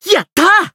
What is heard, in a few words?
Voice win jp; cless